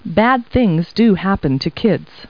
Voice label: people, kid